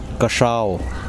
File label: "sky", Kosraean